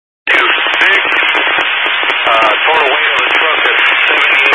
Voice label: Highway Patrol, scanner; DPS; Dist 06 Casa Grande